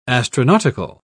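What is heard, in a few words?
"astronautical", British English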